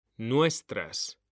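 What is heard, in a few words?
"nuestras", Spanish; mekanta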